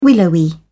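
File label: "willowy", British English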